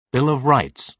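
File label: "bill of rights", British English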